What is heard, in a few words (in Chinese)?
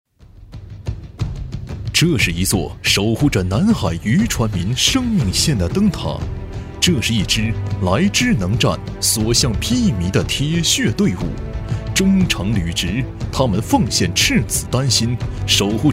男 专题 团队介绍; 琼海支队